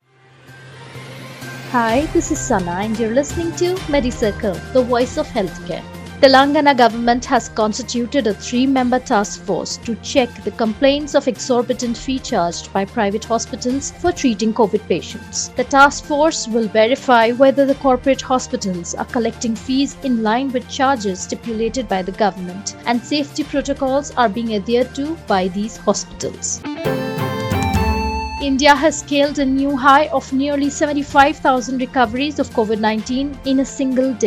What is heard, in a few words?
english news